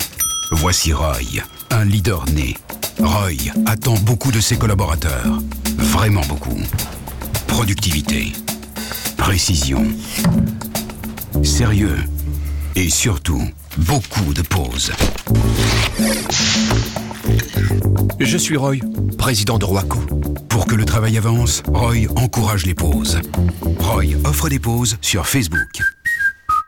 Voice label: tv deep voice caricature trailer parody; royco